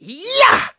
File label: Scout cheers, de